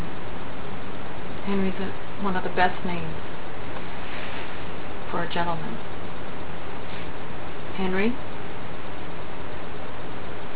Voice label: attic male voice whispering

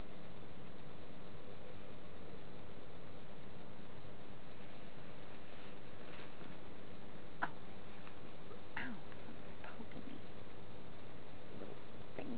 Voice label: male voice answers

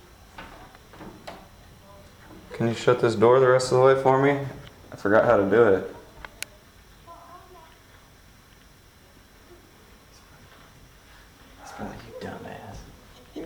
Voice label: childs voice i'll help ya giggles

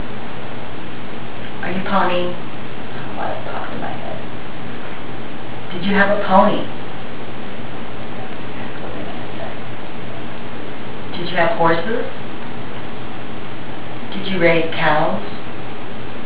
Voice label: upstairs deep male voice

